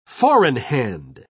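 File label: "four-in-hand", English, British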